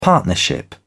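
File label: "partnership", British English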